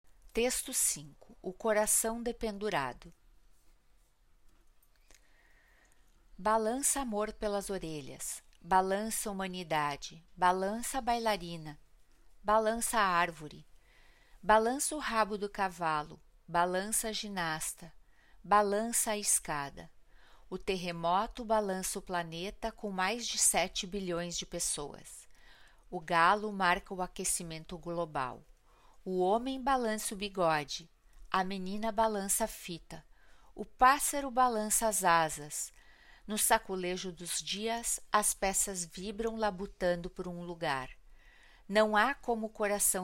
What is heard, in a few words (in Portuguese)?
narração; O coração dependurado; galeria virtual